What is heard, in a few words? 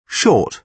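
"short", British English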